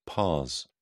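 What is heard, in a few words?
"pars", British English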